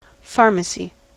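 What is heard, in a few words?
"pharmacy", US English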